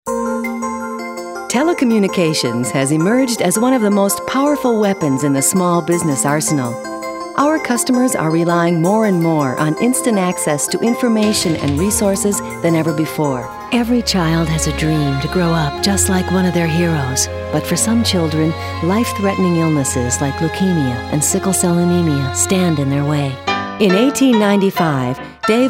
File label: Narration